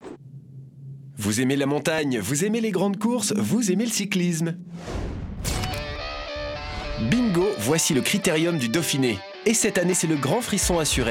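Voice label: trailer; Criterium Dauphine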